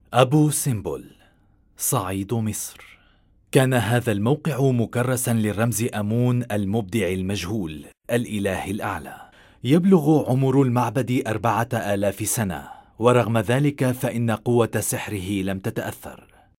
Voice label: Arabic documentaries

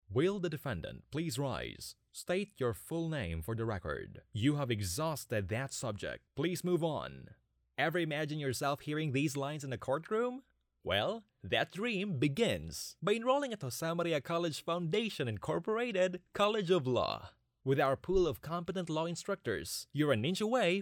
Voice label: english corporate videos

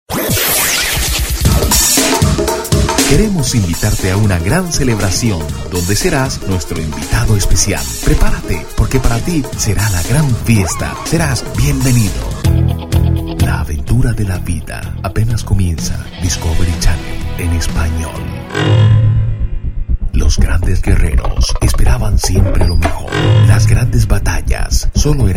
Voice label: spanish latin american commercial; Spanisch (Lateinamerika); Vereinigte Staaten; Vielseitiges neutrales spanisches Voice-Over-Talent. Herzlich, elegant, sanft

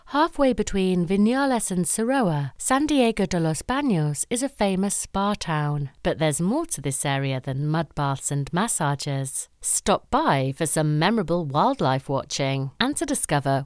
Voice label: english british narration

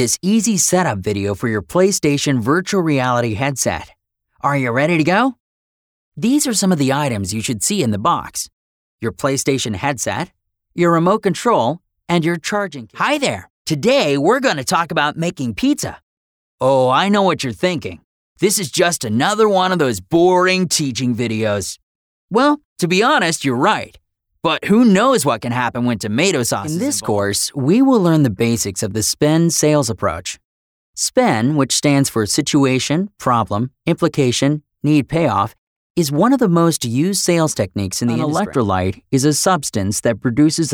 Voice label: english american e-learning

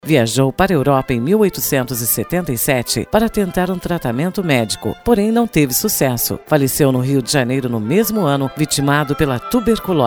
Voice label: portuguese narration

